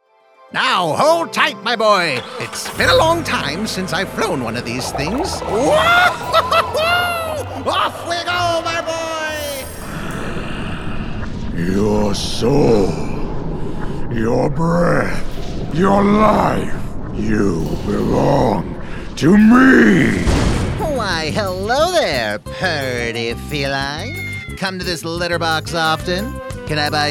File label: Animation